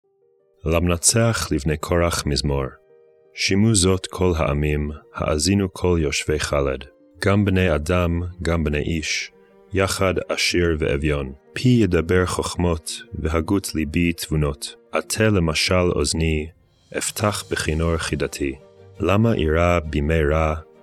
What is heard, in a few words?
poetry and prayer psalm hebrew